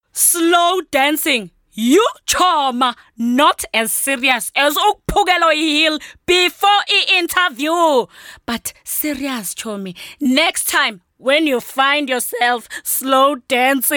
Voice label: English Energetic

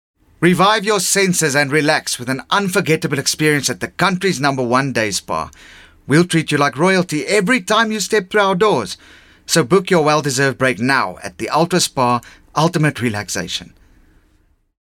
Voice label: English Medium Sell

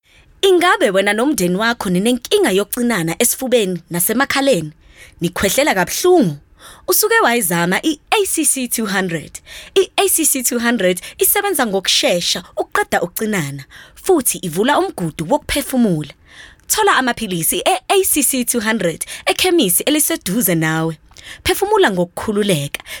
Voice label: Zulu Bright

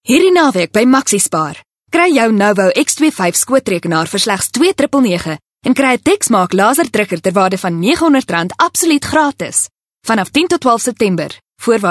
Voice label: Afrikaans Retail

